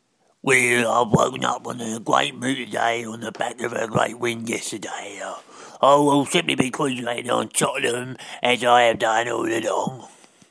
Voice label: cockney twitcher